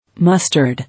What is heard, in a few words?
"Mustard", English